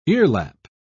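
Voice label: "earlap", British English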